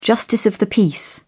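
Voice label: "justice of the peace", British English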